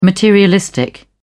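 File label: "materialistic", British English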